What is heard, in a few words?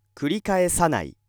male